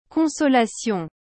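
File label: "consolation", French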